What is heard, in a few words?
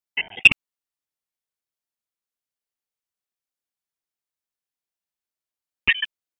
Police Northwest District Tac 1; Raleigh; p25; wake